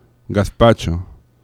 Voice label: "gazpacho", oclusives; laboratori fonetica

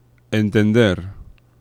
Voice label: "entender", nasals; laboratori fonetica

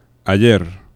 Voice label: "ayer", aproximants; laboratori fonetica

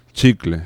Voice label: "chicle", africades; laboratori fonetica

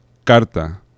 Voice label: "carta", vibrants; laboratori fonetica

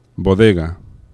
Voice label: "bodega", oclusives; laboratori fonetica

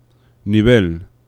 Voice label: "nivel", laterals; laboratori fonetica

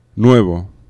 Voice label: "nuevo", aproximants; laboratori fonetica

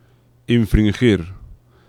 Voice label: "infringir", nasals; laboratori fonetica

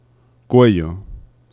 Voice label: "cuello", laterals; laboratori fonetica

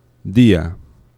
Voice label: "dia", oclusives; laboratori fonetica

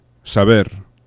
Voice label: "saber", vibrants; laboratori fonetica

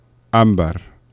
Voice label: "ambar", oclusives; laboratori fonetica

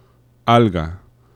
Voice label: "alga", laterals; laboratori fonetica

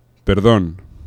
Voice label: "perdon", aproximants; laboratori fonetica

